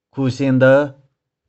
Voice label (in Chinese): "khu2shing1deu5", 玉環